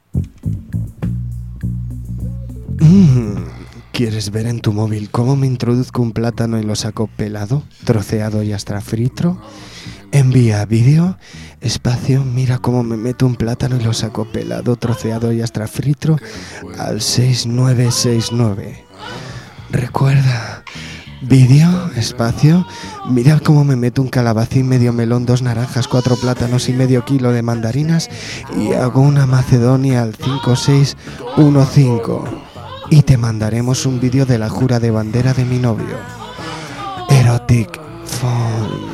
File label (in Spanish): Publicidad; El platano